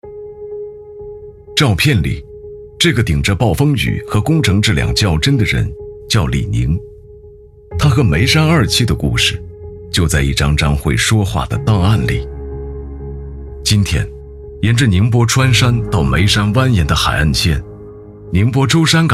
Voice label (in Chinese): 男 专题; 李宁